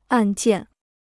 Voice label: "按键", Chinese